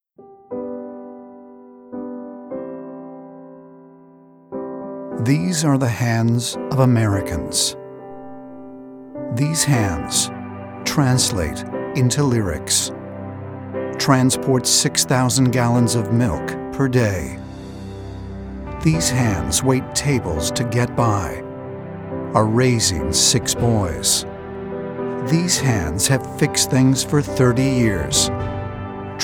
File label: political, voice actor